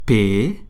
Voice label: French alphabet p

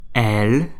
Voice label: French alphabet l

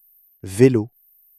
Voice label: "vélo", French

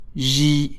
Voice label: French alphabet j; L'alphabet

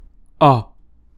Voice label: [ɔ], French

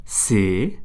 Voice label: French alphabet c

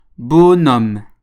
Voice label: "bonhomme", French

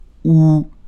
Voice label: [u], French

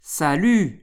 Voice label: "salut", French; Hi; (during the day); To close people; (particularly friends)

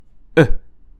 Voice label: "[œ]", French

